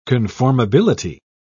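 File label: "conformability", British English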